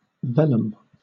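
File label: "velum", British English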